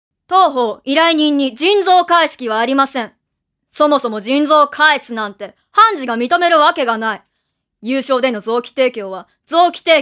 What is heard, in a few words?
female voice